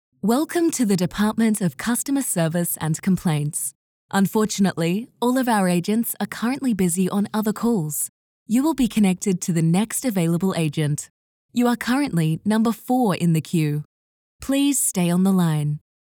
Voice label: english australian ivr